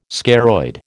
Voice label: "scaroid", American